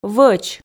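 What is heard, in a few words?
"вӧч", Komi, female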